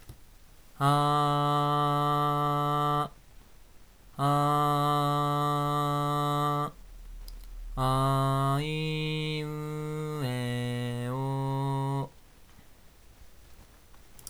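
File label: AG03 mic volume up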